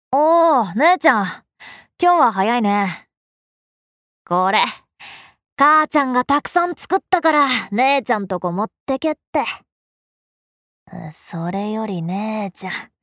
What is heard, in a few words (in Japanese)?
アニメ